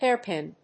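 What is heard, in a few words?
"hairpin", English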